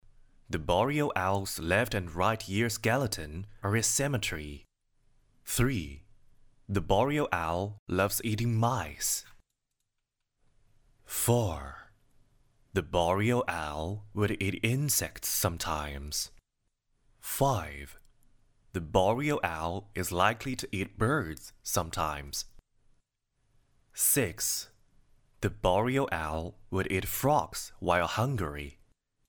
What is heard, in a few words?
male chinese voice over talent english; mandarin chinese